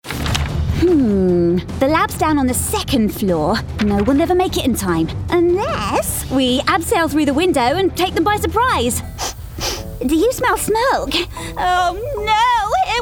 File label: Animation Reel